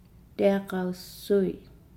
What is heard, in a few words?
"wet wood", Nukuoro